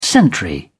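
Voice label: "sentry", British English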